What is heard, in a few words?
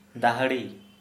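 Santali male hat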